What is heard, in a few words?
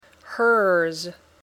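"hers", English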